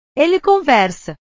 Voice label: "ele conversa", portugues; conversar; portugues/verbos

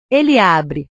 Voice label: "ele abre", portugues; verbos

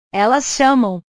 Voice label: "elas chamam", portugues; chamar; portugues/verbos

